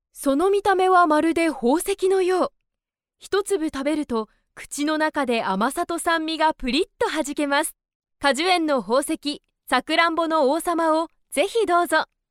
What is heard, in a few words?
female japanese voice over sales